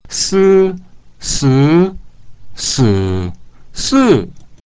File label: si 4 tones, si1 si2 si3 si4; si1 (first tone) can mean silk